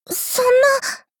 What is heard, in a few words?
shock